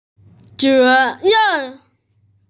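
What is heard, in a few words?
"ze ren", Mandarin Chinese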